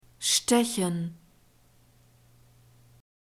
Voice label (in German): "stechen", German; verbs present tense irregular